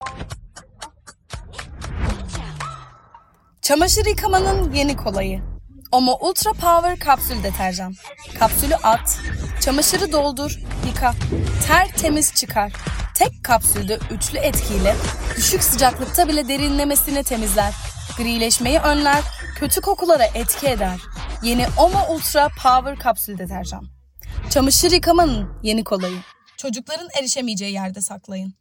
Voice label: Turkish